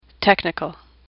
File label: "technical", English; AWL